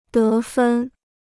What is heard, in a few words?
"得分", Chinese